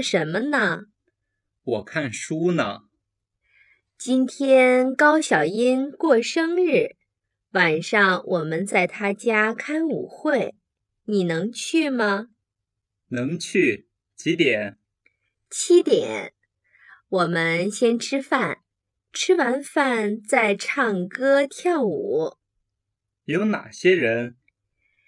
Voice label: lesson dialogue slow